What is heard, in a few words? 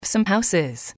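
"some houses", English, female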